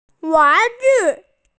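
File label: "toy", Mandarin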